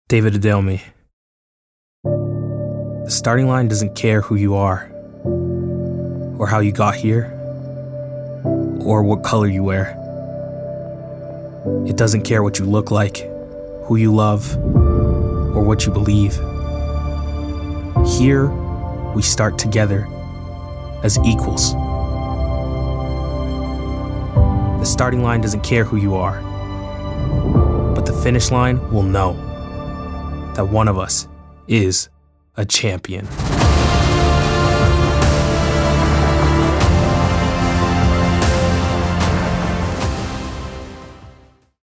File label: Commercial